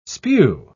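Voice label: "spew", English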